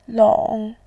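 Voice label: "long", Mandarin, tone 3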